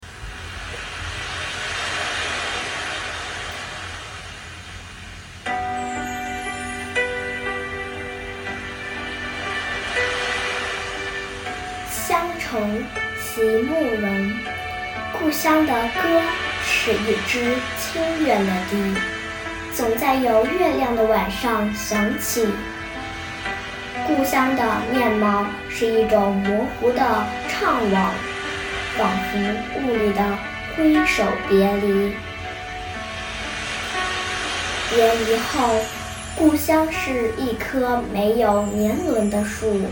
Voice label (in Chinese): 童声朗诵; 席慕蓉乡愁